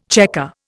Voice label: "chequer", Indian

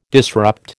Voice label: "disrupt", American

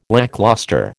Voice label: "lacklustre", American

